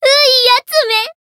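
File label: healed, Japanese; tiramisu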